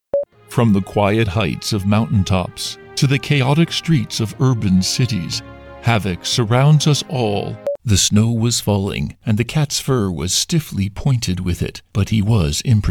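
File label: commercial english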